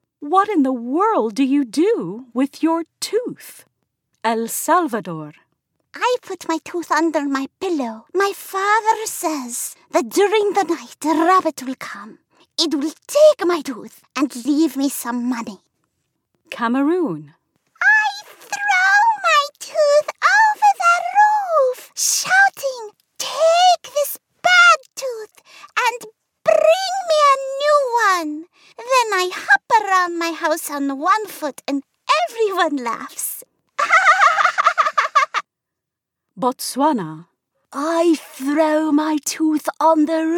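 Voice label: English US female Character Child; voice over; american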